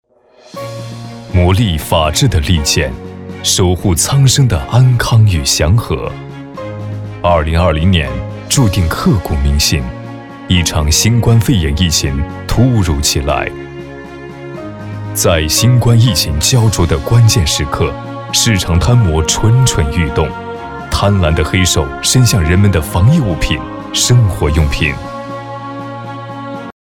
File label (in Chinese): 男 专题 大气 严肃; 山东省市场监管局